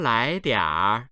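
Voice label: "lai2 dianr3", Chinese, male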